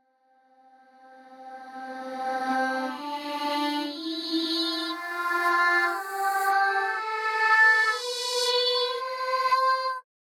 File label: doremi reverb echo